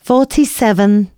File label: announcements female